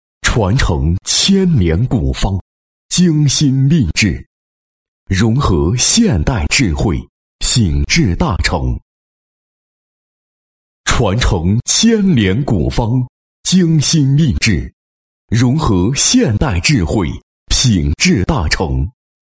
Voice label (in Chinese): 男, 广告宣传; 天胶阿胶糕